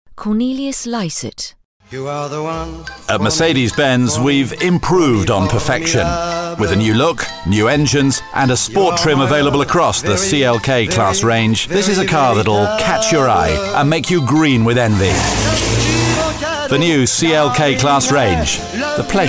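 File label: commercials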